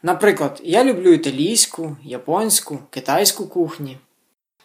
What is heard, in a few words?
dialogue, Ukrainian